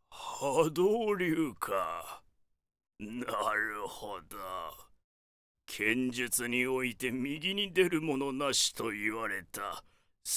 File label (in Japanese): 老人